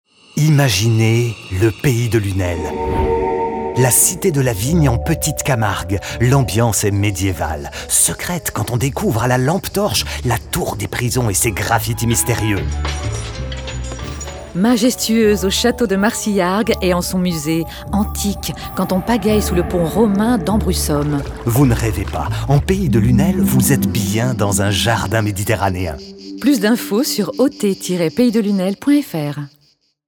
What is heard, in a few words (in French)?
spot radio; pays de lunel; fb herault